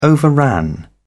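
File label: "overran", British English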